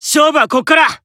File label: Emo Inspire Self